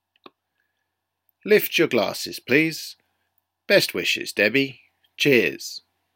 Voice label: toast speech